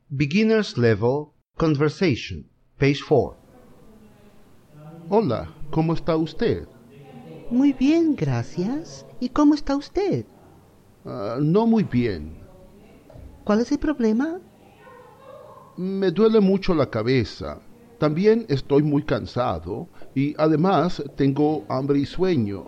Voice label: Spanish Conversation; Level 1